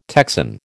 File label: "Texan", American